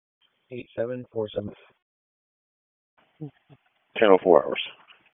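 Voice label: Police District Dispatch, p25; Raleigh; Southwest; wake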